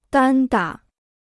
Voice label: "单打", Chinese